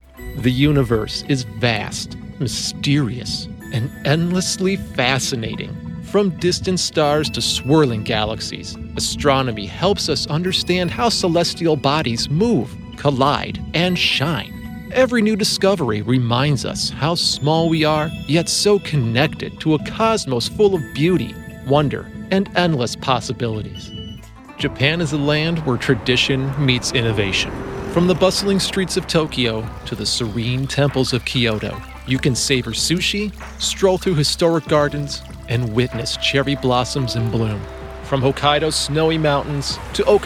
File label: Narration